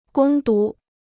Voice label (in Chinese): "攻读", Chinese